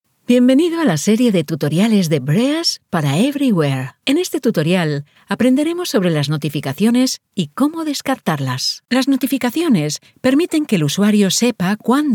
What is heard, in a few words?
E-LEARNINGS